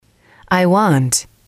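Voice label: i Want, english, female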